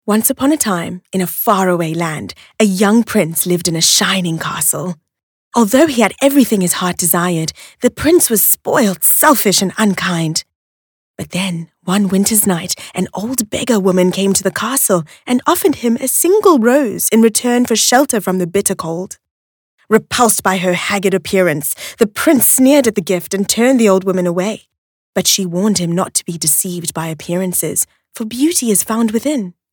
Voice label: VO RP and American Accents; South Africa